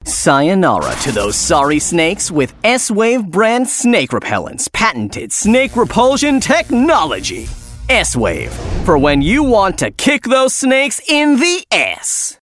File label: 90s Extreme Comedic